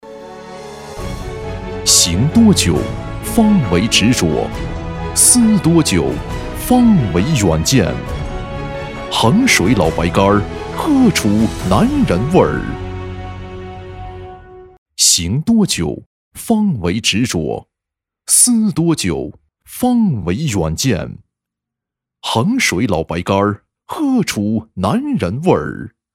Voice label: 产品广告 酒类 广告; 老白干儿

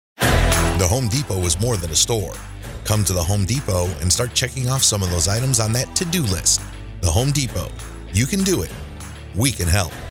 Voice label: 磁性轻快广告

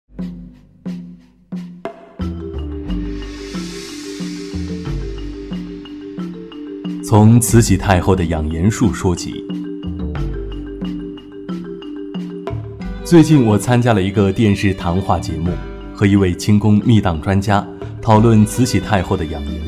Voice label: 课件 亲切稳重娓娓道来; 慈禧养颜术

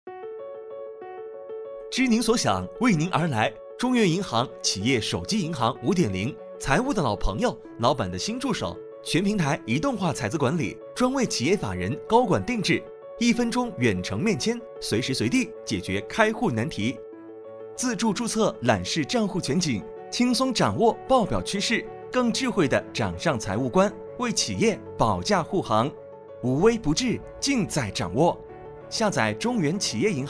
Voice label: 广告 配音

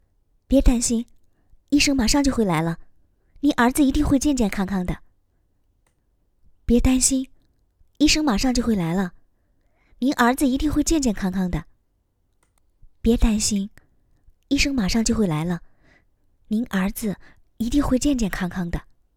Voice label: 角色配音女护士女青年音

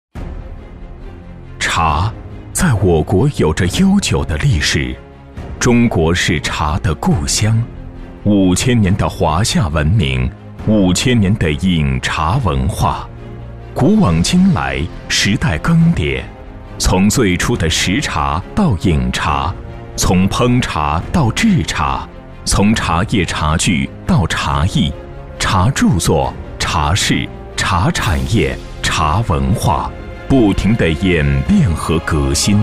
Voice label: 宣传; 百年鹤鸣

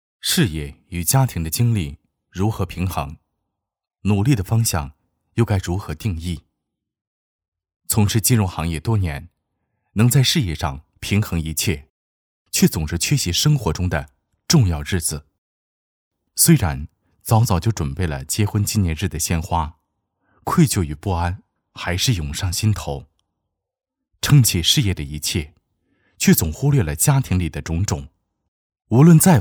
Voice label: 素人 男性 金融师

